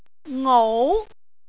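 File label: "ngou2", Cantonese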